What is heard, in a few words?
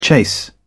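"chase", British English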